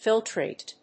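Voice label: "filtrate", English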